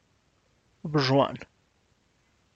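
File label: tlacochahuaya, Zapotec; lord master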